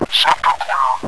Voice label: clear, radio zombie male

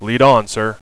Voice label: "lead on sir", radio bot; cstrike

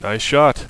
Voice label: "nice shot", radio bot; cstrike

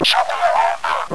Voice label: circleback, zombie male

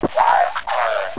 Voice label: round draw, radio, zombie male

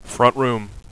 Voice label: "front room", radio bot; cstrike

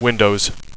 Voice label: "windows", radio bot; cstrike

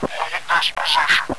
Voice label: position, radio, zombie male

